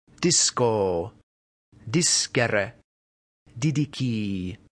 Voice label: "disco", Latin